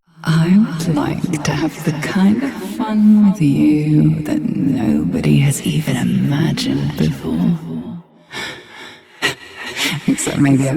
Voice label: Ambient Barks, en